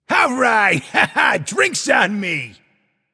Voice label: nv yay; cupid

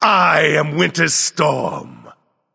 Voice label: vox emote; smite; ra; voicepack

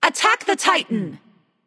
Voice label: vox attack; smite; nox; voicepack

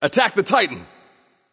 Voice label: vox attack m; smite; anubis

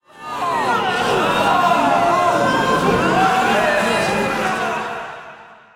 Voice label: lobby crowd beg; smite; cthulhu

